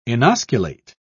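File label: "inosculate", British English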